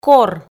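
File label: "кор", Komi, female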